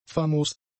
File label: "famos", German; adjectives